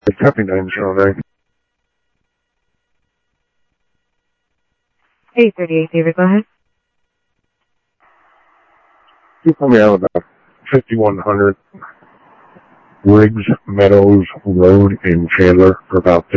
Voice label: Sheriff Central, scanner audio trunk

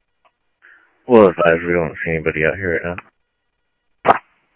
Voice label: scanner audio trunk

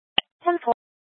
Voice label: Scottsdale Police, Simulcast

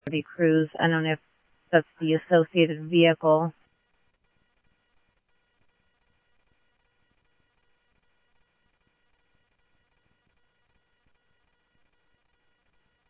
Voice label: Tempe Police, scanner; SimulcastF-Tempe

